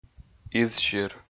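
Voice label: "изсир", Komi, male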